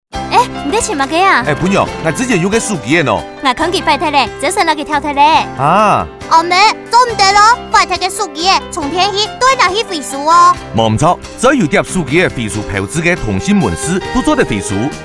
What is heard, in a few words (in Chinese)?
廢手機 客語; 華語+客語四縣腔; 30秒; 客委會 客語認證